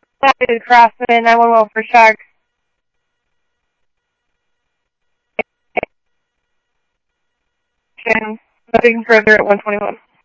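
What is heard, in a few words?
police radio dispatch, Scottsdale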